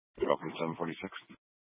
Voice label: Police Dispatch, scanner; Police 1 Dispatch